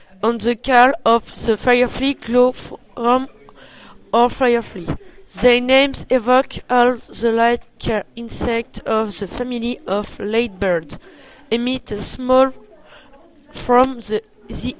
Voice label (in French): version vocale en anglais